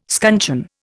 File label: "scuncheon", Indian